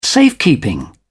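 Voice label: "safekeeping", English, British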